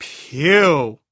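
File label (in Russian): дерзкое произношение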